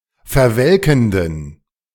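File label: "verwelkenden", German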